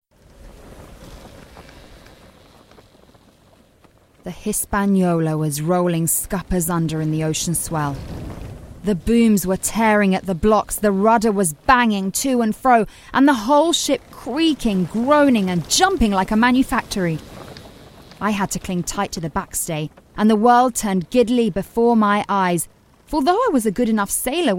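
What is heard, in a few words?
English UK Audio Book; GoLocalise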